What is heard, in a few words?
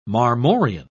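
"marmorean", British English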